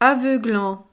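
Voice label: "aveuglant", French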